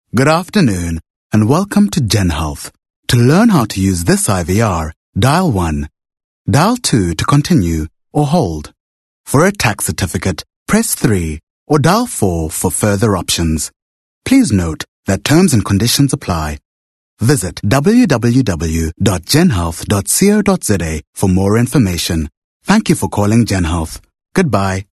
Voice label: English Corporate IVR; OSM Talent